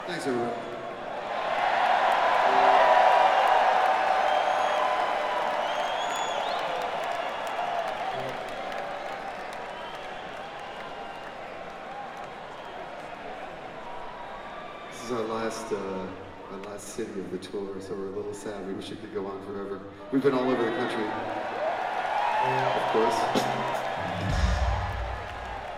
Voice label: Banter, Las Vegas, NV - MGM Grand Garden Arena

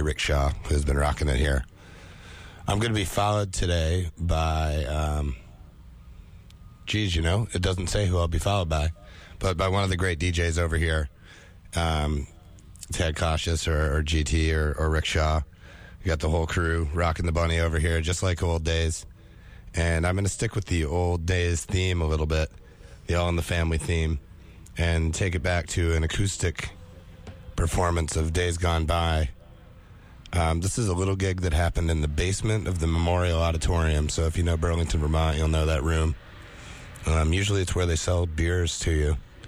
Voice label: radio banter; Festival 8

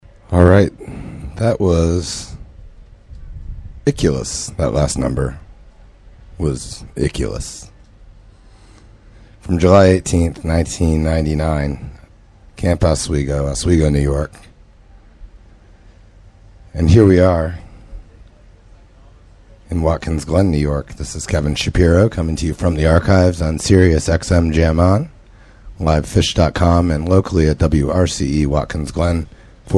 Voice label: radio banter; Super Ball IX

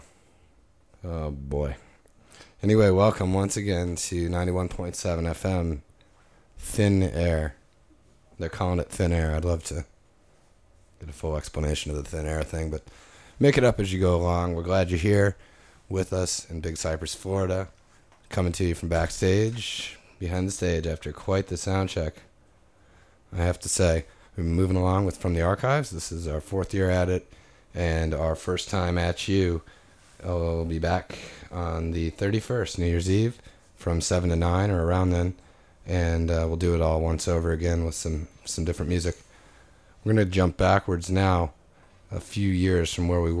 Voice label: radio banter; Big Cypress

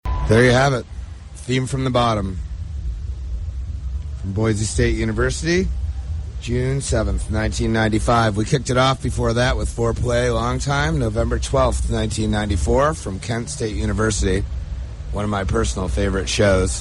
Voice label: radio banter; Bonnaroo

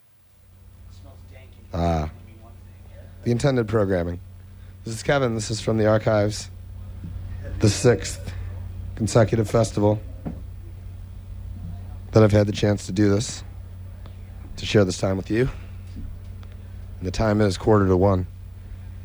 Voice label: radio banter; Coventry

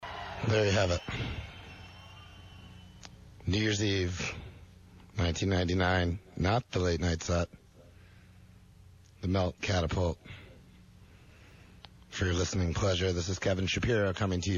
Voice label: radio banter; Festival 8

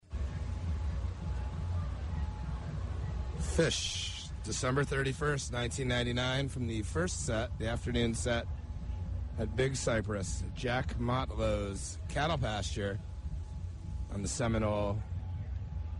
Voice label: radio banter; Bonnaroo

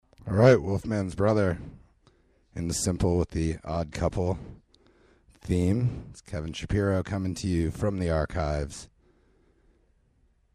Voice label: radio banter; Super Ball IX

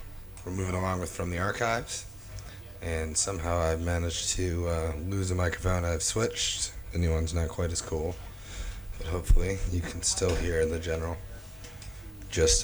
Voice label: radio banter; Big Cypress

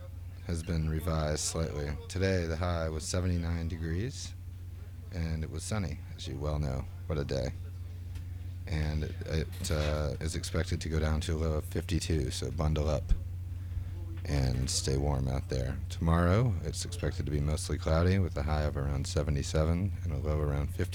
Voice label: radio banter; Lemonwheel

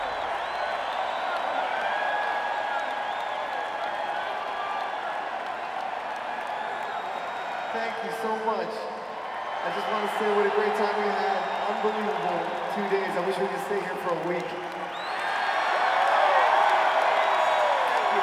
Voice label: Banter; Cincinnati, Ohio; US Bank Arena

